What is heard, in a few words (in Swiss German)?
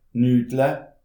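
"nudle", Alsatian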